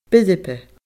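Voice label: "buirbe", Scottish Gaelic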